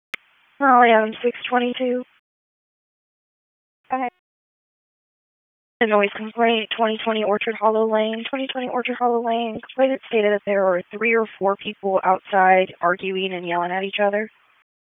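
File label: Police Southwest District Dispatch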